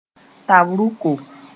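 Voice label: "tab-rʋko", Mooré; pipe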